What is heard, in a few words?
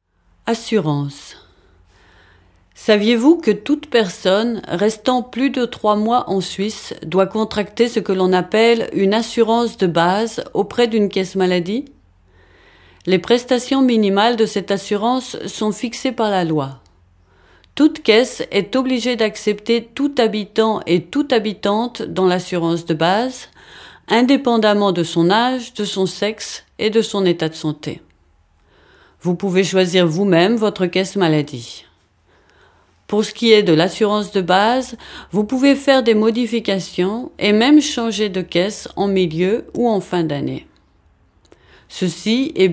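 French; versicherungen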